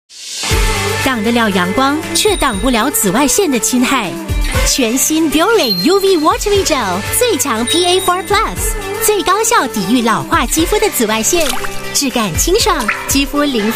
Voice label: Mandarin Commercial, China Female; Biore UV Watery Gel

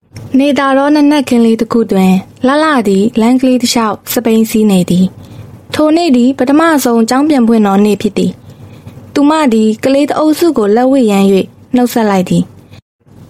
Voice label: Burmese Female Narration

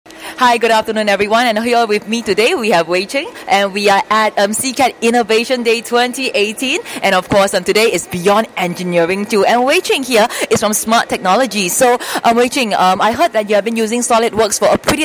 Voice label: Asian English Live Emcee; Why Solidworks

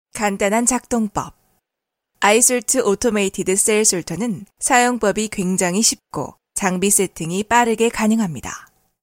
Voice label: Korean Bio Tech Narration

